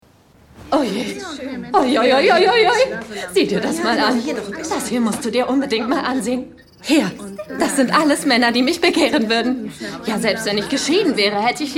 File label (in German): enthusiastisch & tantig; Love Alarm; Schauspielerin; Sprecherin